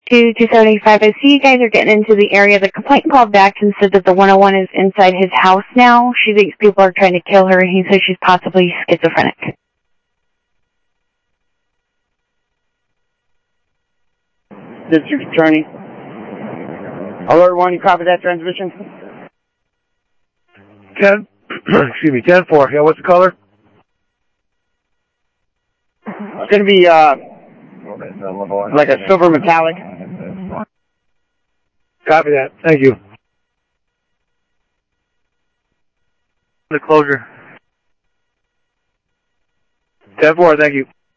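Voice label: Sheriff West County, scanner audio trunk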